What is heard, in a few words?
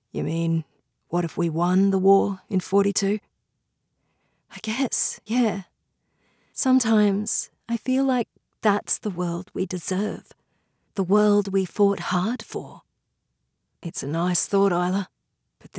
Audio Sample, feminine voices